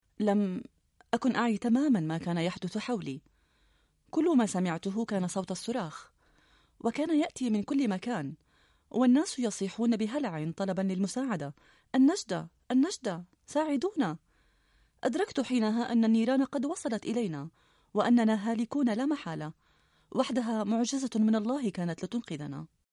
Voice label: Arabic F Documentary; voice over